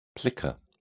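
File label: "plica", British English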